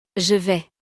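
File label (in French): "je vais", French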